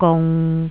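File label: "gung", Cantonese, tone 6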